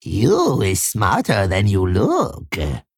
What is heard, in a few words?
Male Kobold; Togwaggle; Choose Boss